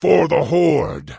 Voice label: Orc Male Guard Farewell